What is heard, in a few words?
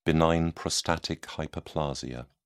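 "benign prostatic hyperplasia", English, British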